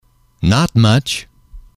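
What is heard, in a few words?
"not much", English, male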